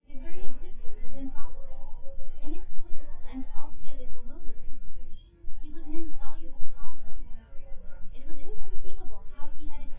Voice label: female synth conv 1m; SASSEC07

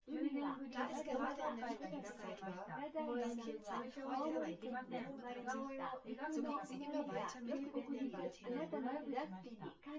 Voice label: female; SiSEC08; SiSEC underdetermined

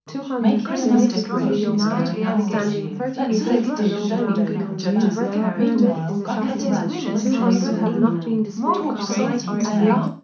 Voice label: female mix; SiSEC11; underdetermined

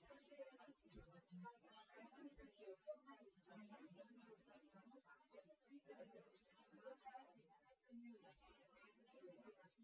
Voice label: female; SiSEC08; SiSEC underdetermined

